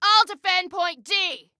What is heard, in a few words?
defend, Female